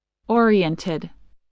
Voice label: "oriented", English voice